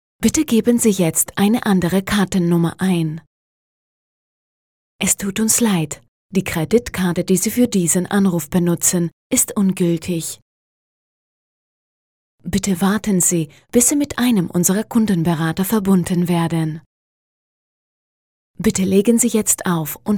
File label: TEDESCO normale